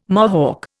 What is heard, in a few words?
"Mohawk", Indian